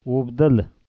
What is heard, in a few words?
"obdal", Pashto